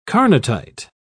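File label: "carnotite", British English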